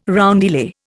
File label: "roundelay", Indian